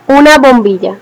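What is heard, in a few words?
Lightbulb, Spanish; household; tuxpaint-stamps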